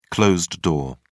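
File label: "closed-door", British English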